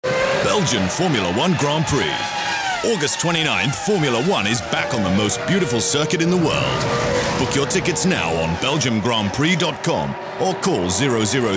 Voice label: commercial; F1 Grand Prix